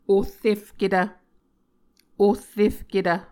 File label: "ohrefketv", Muscogee